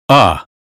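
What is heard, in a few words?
American phonetics sound above; 英语音标发音（剑桥版）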